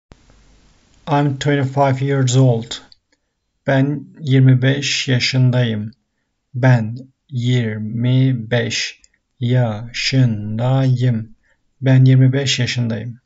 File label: I am 25, turkish; the talking turkish phrasebook